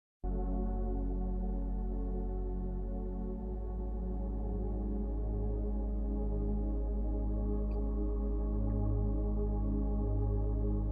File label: Guided Meditation Recording